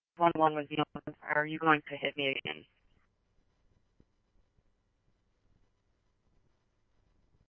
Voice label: Chandler Police, scanner; CPD-Ptrl B; RWC; C-Chandler

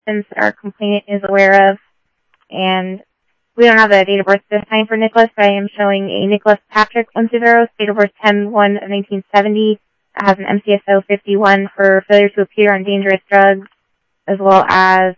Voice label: Tempe Police, scanner

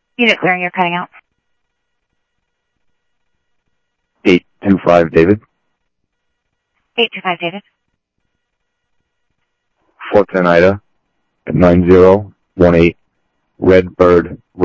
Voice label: Central Sheriff Central, scanner audio trunk

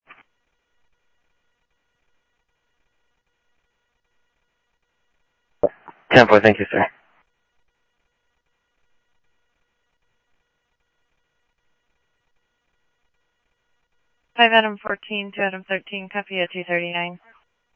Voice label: scanner audio, Sheriff

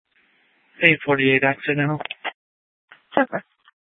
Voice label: Sheriff, scanner P25

